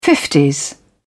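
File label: "fifties", English, British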